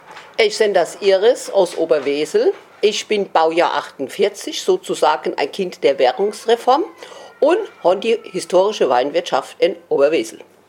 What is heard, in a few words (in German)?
stellt sich vor, Hunsrück; Oberwesel